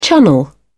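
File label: "chunnel", British English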